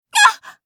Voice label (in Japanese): 女･被ダメージ; オーシュマスケッティア